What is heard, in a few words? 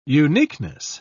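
"uniqueness", British English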